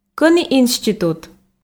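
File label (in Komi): кӧні институт, Komi, female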